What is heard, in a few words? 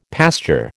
"pasture", American